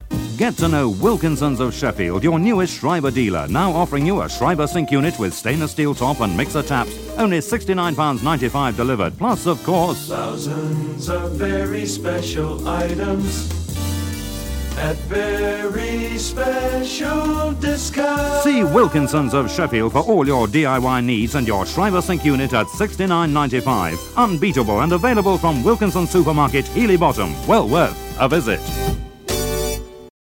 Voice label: Radio Hallam Advert; Wilkinsons Schreiber

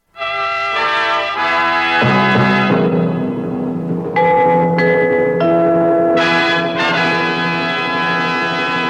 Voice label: Radio Advert, Year Book; Radio Hallam; IBA; Teleevision And Radio 1977

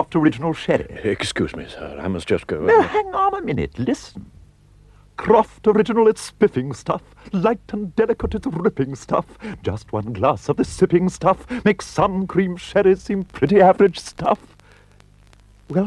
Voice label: Radio Advert; Radio Hallam; Croft Original

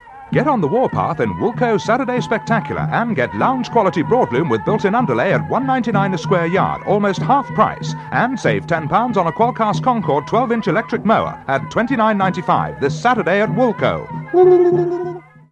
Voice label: Radio Advert; Woolco Saturday Spectacular; Radio Hallam

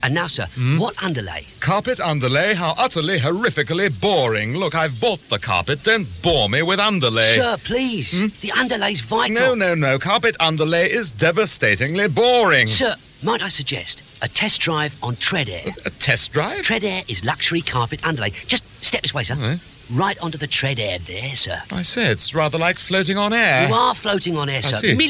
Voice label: Radio Advert; Treadaire Underlay; Radio Hallam

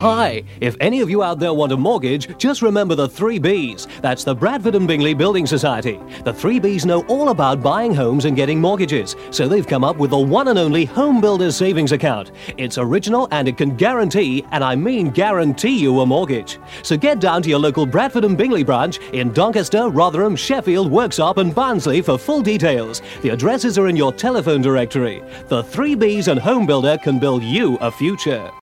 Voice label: Radio Advert, Mortgages; Radio Hallam; Bradford And Bingley

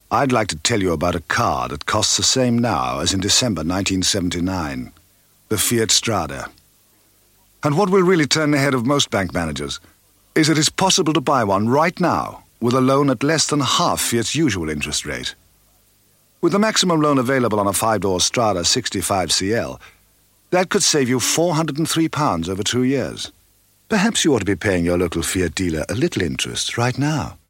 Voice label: Radio Hallam Advert; Fiat Strada

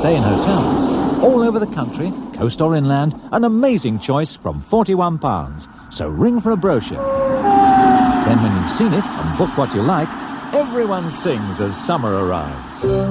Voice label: Radio Advert, Holidays; Radio Hallam; Golden Rail

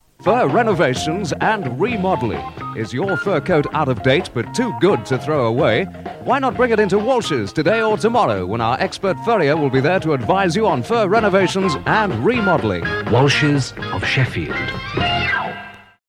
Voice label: Radio Hallam Advert; Walshs Fur Remodelling

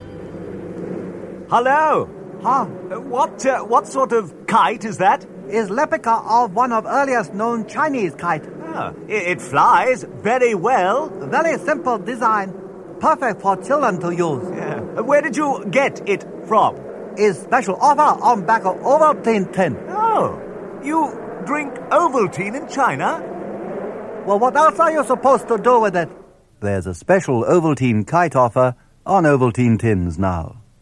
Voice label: Radio Advert; Radio Hallam; Ovaltine

